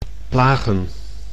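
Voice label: "plagen", Dutch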